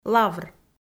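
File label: "лавр" Komi, female; kpv audio dict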